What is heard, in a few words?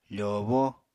"fòobó", Apatani